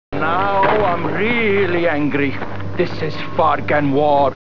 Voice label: angry